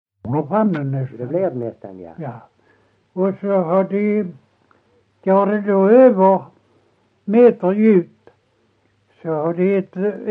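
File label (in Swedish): Ekeby Skåne, dialekter, svenska sagor; Folkminnen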